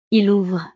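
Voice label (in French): "il ouvre", French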